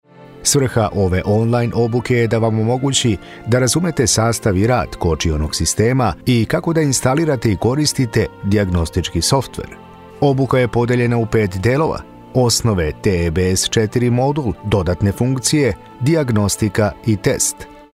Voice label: training video course male professional voice over with studio